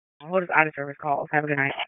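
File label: EMS DISP, radio; wake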